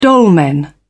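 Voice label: "dolmen", British English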